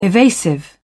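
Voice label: "evasive", British English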